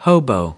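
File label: "hobo", American English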